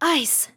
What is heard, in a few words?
ice, RPG Voice; RPG Voice Starter Pack